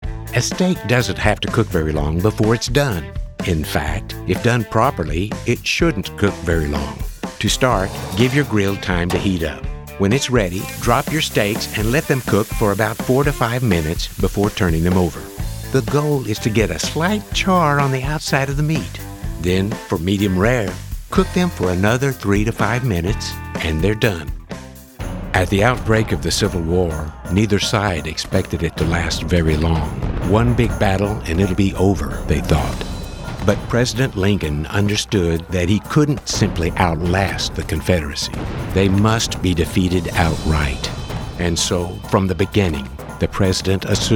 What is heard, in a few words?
narration